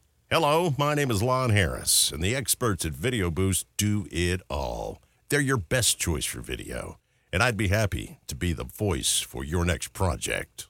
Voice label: Voiceover; internationale voiceover